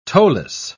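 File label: "toeless", British English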